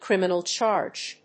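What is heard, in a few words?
"Criminal charge", English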